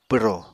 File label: "pwro", Apatani